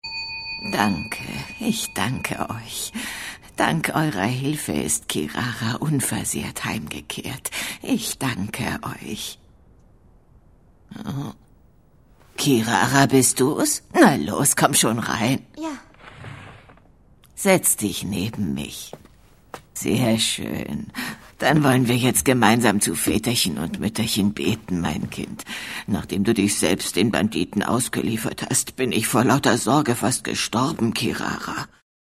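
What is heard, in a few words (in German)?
Synchron Alte, Sprecher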